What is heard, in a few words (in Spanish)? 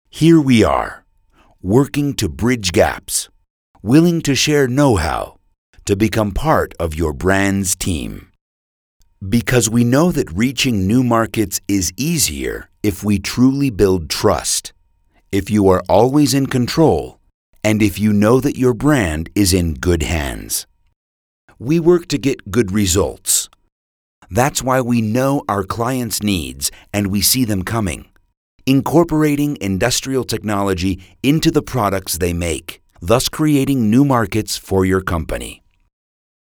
Locucion Ingles; Incopack Manifiesto